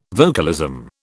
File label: "vocalism", British